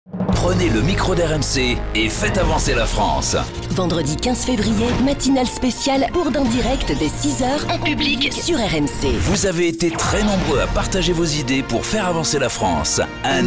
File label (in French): Pub Radio; RMC Bourdin direct